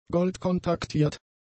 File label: "goldkontaktiert", German; adjectives